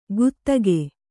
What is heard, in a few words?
"ಗುತ್ತಗೆ", Kannada